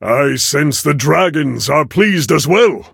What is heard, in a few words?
levelup en; cookie run